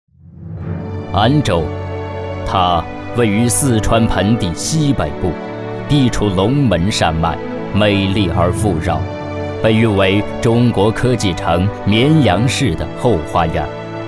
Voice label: 男 学校 高级职业学校宣传; 安洲

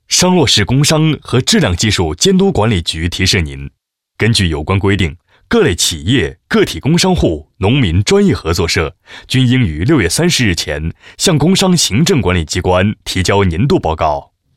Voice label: 男 提示语; 工商和质量技术监督管理局

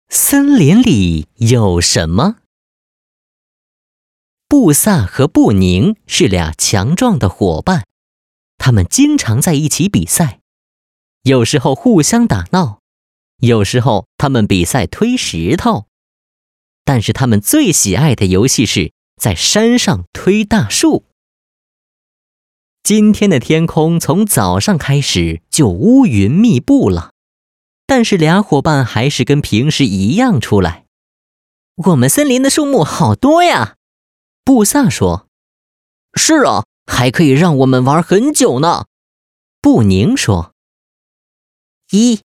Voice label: 男 故事绘本; 森林里有什么

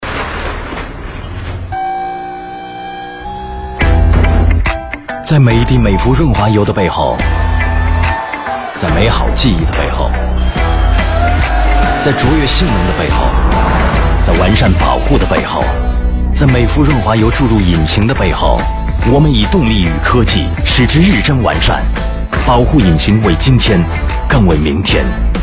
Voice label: 男 广告, 国语男声配音

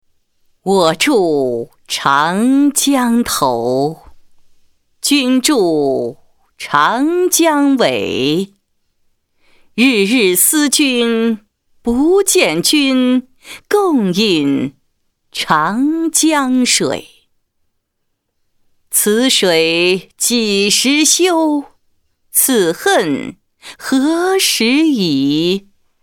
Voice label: 女 诗歌; 《卜算子·我住长江头》李之仪

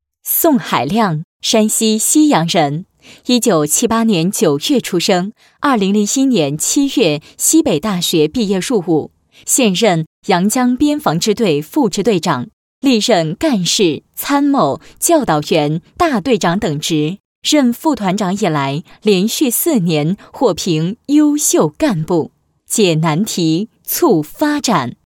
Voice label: 女, 人物, 边防支队副支队长; 阳江边防支队副支队长; 方言

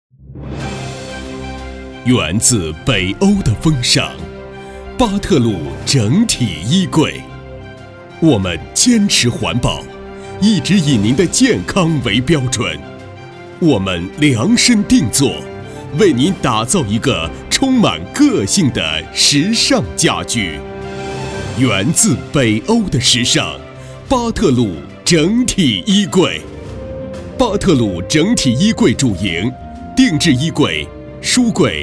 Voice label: 男 大气广告, 国语男声配音; 巴特鲁成品

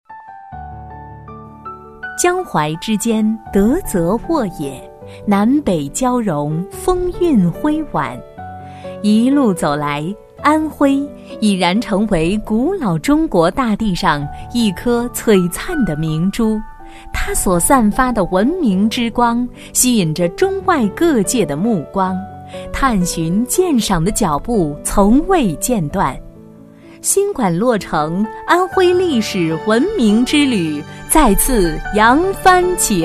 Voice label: 女 专题, 国语女声配音; 安徽博物馆

